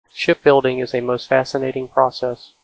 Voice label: Enhanced Speech White Noise SNR 15 dB